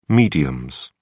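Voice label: "mediums", English, British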